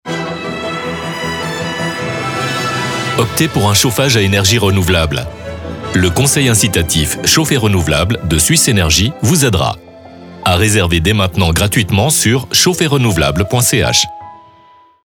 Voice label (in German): Radiospot FR; CRK BFE Erneuerbarheizen